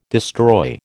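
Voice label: "destroy", American English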